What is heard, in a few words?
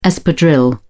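"espadrille", British English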